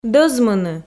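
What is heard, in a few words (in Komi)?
"дӧзмыны", Komi, female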